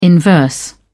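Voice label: "inverse", British English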